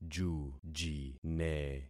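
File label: "jujine", concatenated syllables; Affixing experiment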